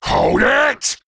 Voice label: Male Worgen Attack